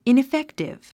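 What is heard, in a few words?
"ineffective", English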